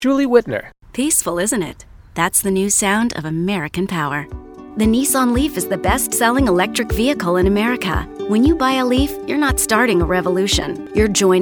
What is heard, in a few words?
commercial